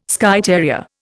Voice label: "Skye terrier", Indian